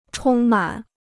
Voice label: "充满", Chinese